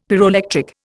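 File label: "pyroelectric", Indian English; Dictionary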